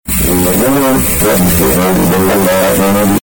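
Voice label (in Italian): "scriva", Italian; Scriva, conosco: qui scetà, vedo là grave!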